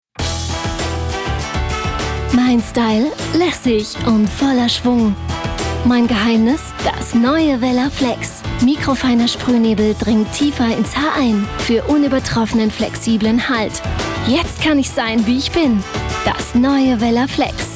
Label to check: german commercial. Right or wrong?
Right